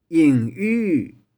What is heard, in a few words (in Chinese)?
"ing2iu6", 玉環